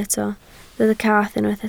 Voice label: "cath", Welsh